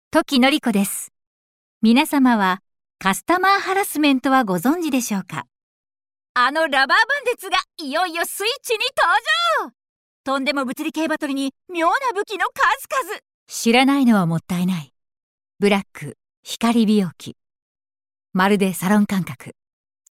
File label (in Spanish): locutora japonesa japanese voiceover